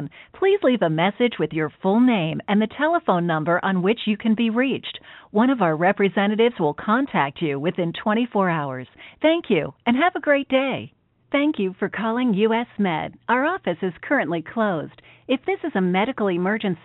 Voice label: On Hold IVR